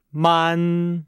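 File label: "man", Chinese; Nihao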